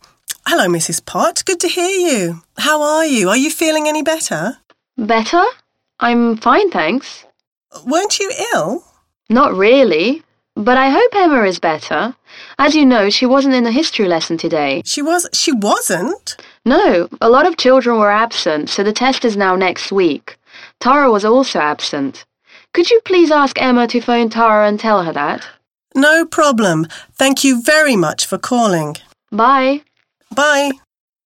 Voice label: TELEPHONE CONVERSATION; Osnovna skola; 6. razred; Skolska knjiga; Engleski jezik; Dip in 6